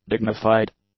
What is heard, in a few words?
"dignified", english; adjectives